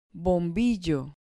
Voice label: "bombillo", Spanish

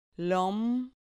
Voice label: "lom", Spanish syllable

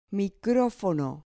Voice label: "microfono", Spanish

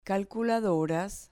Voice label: "calculadores", Spanish

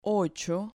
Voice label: "ocho", Spanish

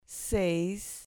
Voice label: "seis", Spanish

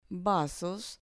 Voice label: "vasos", Spanish

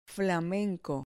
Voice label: "flamenco", Spanish

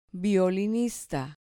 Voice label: "violinista", Spanish

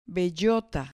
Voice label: "bellota", Spanish

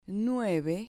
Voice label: "nueve", Spanish

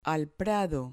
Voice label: "prado", Spanish

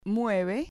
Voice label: "mueve", Spanish

